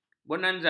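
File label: "bonanza", Malay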